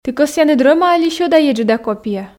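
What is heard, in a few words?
"ті кӧсъянныд рӧма али сьӧда-еджыда копия", Komi, female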